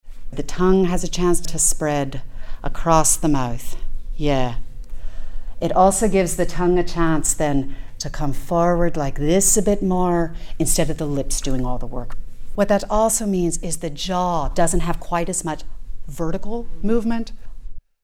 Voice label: Irish tongue and lips